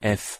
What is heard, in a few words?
"f" pronunciation de